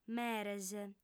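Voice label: "meres", female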